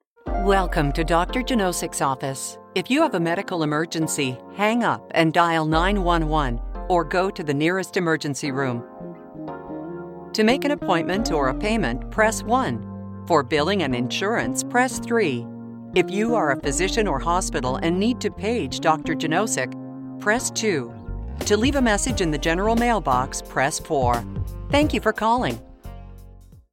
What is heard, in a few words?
english canadian ivr